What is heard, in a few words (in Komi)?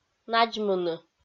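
"надзмыны", Komi, female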